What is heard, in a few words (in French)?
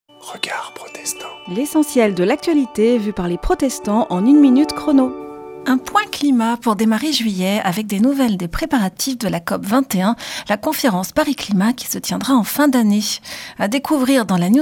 Spot radio; Regards protestants